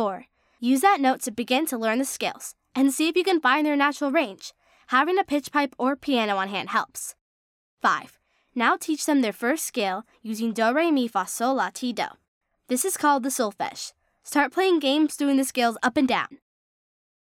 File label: narration, teaching children to sing